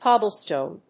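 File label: "cobblestone", English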